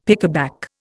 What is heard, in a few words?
"pickaback", Indian; Dictionary